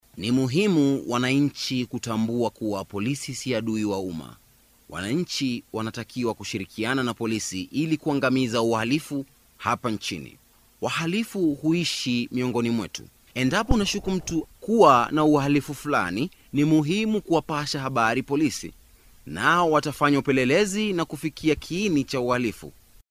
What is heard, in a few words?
polisi speech, KISWAHILI